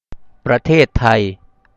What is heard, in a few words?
thailand thai